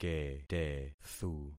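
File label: "ketethu", concatenated syllables; Affixing experiment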